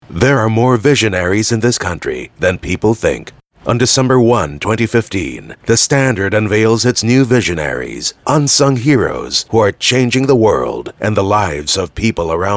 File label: English (Bold)